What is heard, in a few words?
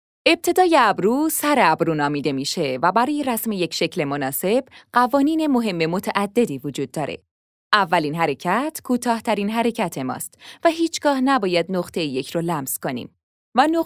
Persian-Farsi female